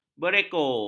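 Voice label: "berekor", Malay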